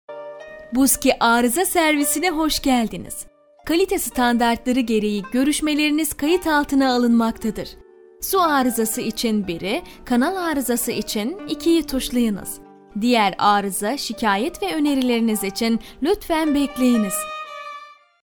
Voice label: female turkish voice over upbeat